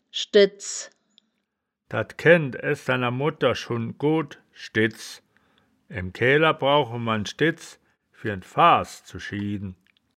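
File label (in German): "schdetz", wittlicher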